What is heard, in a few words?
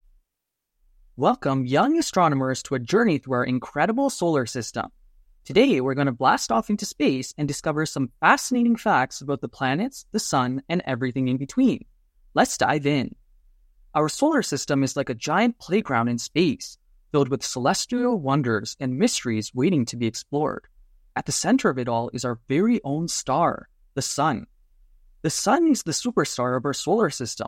Childrens Narration Voice